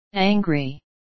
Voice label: "angry"